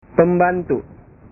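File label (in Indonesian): "pembantu", Indonesian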